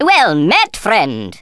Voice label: "excellent", Thespian; Worms Armageddon